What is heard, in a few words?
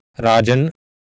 "raajan", Sanskrit